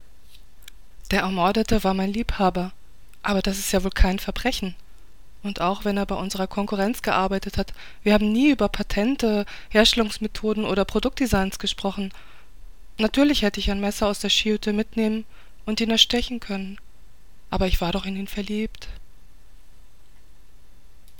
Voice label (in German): Aussage Frau; Bernbach